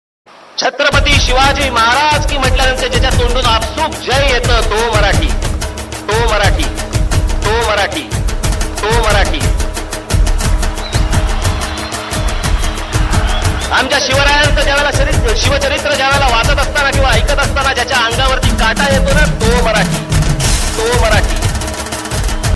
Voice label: marathi ringtone; raj thackeray